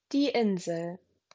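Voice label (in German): "Insel", German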